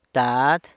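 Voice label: "dad", San Lucas Quiaviní Zapotec; father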